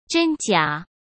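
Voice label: "真假", Mandarin Chinese; chinese-flashcards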